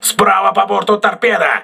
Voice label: voice message torpedo right, crew ships russian